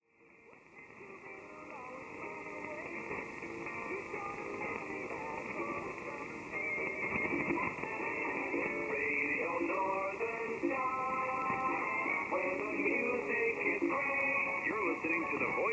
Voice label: 5895 kHz, english; Radio Northern Star LKB LLE; Norway; FT 2000; Schwarzse